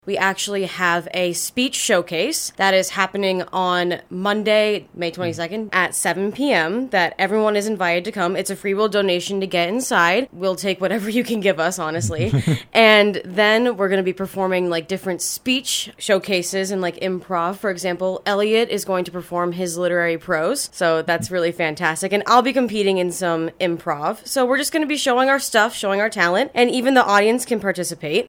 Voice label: speech showcase